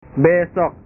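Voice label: "besok", Indonesian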